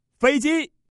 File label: plane, male